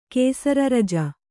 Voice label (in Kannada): "ಕೇಸರರಜ", kannada